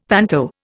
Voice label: "panto", Indian; Dictionary